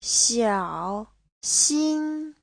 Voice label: "xiao3 xin1", Chinese